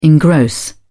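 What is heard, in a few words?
"engross", British English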